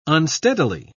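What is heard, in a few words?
"unsteadily", British English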